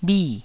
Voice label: "bhi4", taiwanese

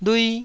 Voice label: "lui1", Taiwanese syllable

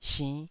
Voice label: "sinnh5", taiwanese Syllables

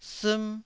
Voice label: "siim1", taiwanese Syllables

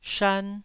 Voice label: "shan1", taiwanese Syllables

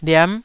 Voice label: "liam9", taiwanese

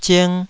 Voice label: "zing2", Taiwanese

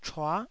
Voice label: "chua9", taiwanese

